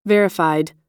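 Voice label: "verified", American English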